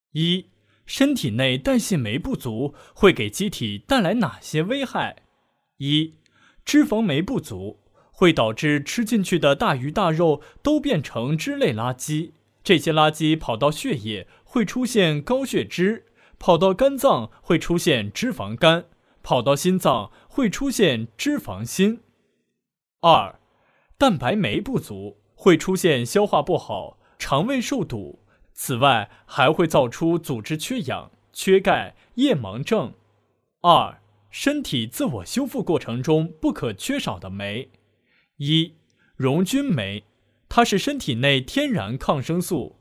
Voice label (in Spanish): Locutor varón chino